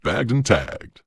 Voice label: corp gloat; Dystopia; vox new